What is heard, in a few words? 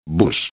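"bus", Spanish; ortografia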